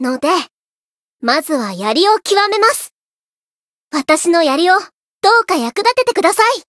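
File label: Voice story, Japanese; komachi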